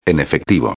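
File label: "en efectivo", Spanish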